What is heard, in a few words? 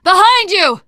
lookout, teen girl voice; survivor voice